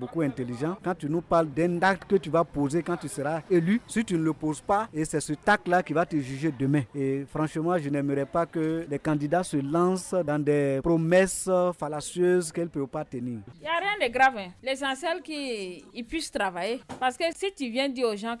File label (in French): vox pop attentes des populations de boundiali pour ces elections couplees; campagne electorale